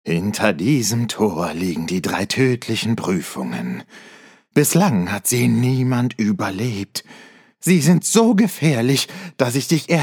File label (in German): Trickstimme